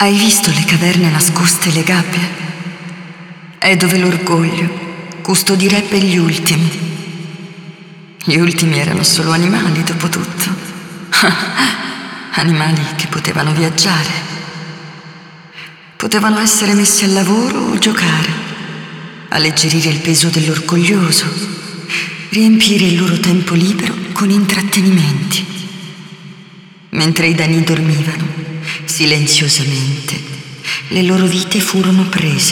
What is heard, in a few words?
Speech, ita; moul; bhro Yeesha; Tldn